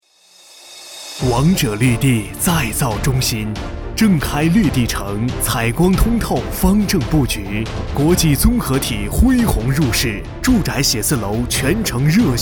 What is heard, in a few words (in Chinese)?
地产 广告